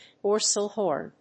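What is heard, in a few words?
"dorsal horn", English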